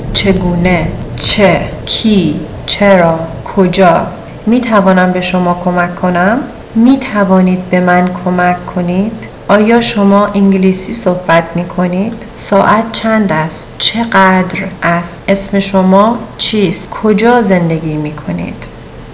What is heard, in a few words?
persian questions